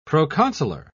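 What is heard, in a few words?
"proconsular", English, British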